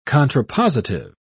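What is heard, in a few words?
"contrapositive", British English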